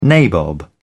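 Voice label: "nabob", British English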